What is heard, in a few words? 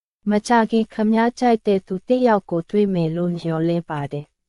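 Myanmar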